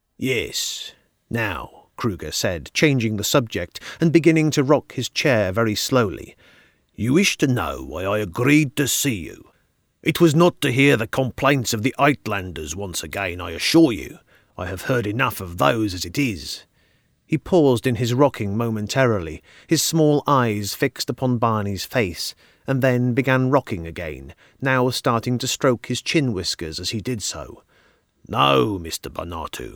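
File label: south african, accents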